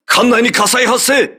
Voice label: voice message fire on board, crew ships japanese

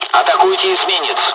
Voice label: dialogs russian; War Thunder

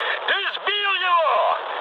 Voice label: player killed enemy, mood high, speech ru

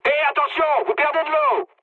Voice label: damaged water mood med, speech fr; War Thunder

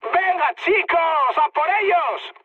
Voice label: enemy detected, mood high, speech; War Thunder

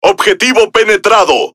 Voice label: Es Speech Hit Confirmation; World Of Warships

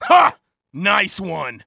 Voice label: taunt hah nice

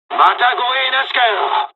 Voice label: dialog, dialogs japanese; us midway; War Thunder

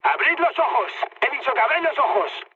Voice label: player friendly fire, mood high, speech; War Thunder

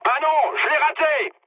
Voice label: bomb failure mood med, speech fr; War Thunder

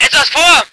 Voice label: voice ger vehicle

